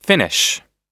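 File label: "finish", US English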